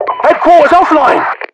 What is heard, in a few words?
announcer hq defended